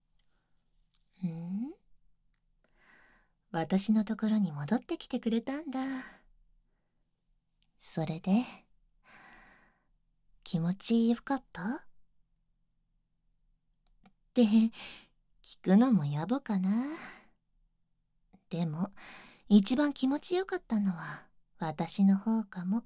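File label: no se, pillow talk, ASMR; 千尋ピロートーク; 効果音なし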